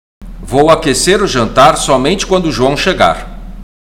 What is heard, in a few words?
Portuguese Listening; Portuguese Test